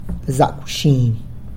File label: "Zac wxiny!", Good evening!